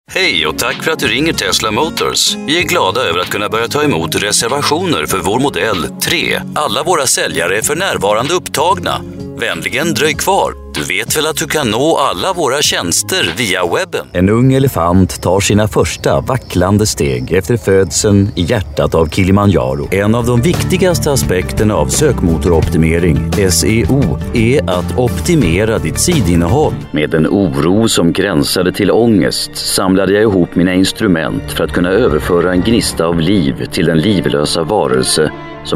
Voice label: Narrative